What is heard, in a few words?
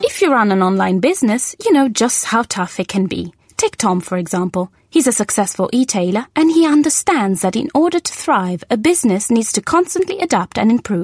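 Italian, English accent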